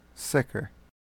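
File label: "sicker", American English; pronunciations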